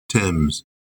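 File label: "Thames" pronunciation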